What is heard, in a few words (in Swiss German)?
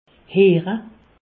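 "heere", Alsatian; medecine; entendre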